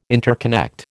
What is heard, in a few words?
"interconnect", American